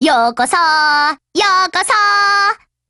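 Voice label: BUILD OVER Japanese, Voice; GF Alarm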